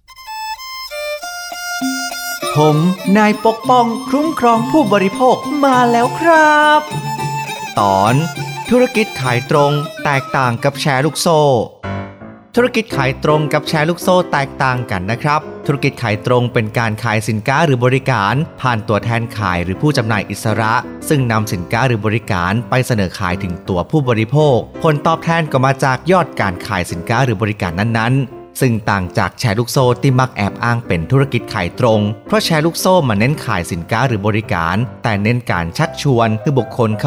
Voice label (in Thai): สปอตวิทยุ ภาคเหนือ; สื่อประชาสัมพันธ์; สคบ.; สำนักงานคณะกรรมการคุ้มครองผู้บริโภค